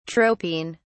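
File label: "tropine", British English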